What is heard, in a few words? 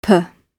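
"per", British English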